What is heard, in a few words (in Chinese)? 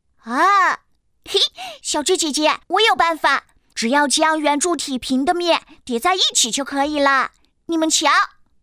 童声